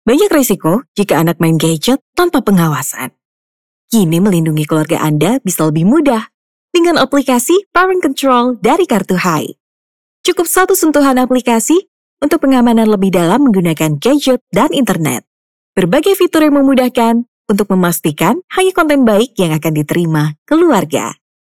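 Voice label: Indonesian casual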